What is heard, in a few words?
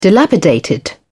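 "dilapidated", British English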